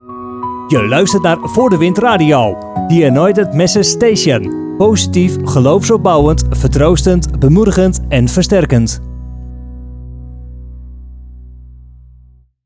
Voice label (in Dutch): JINGLE VOORDEWIND RADIO Je luistert naar Voordewind Radio; The Anointed Message Station